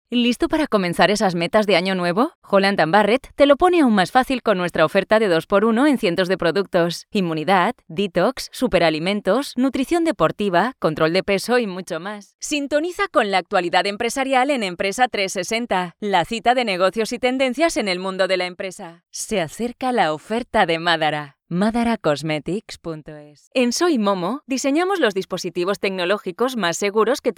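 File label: comercial, Spanish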